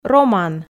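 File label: "роман", Komi, female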